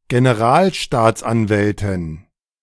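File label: "Generalstaatsanwältin", German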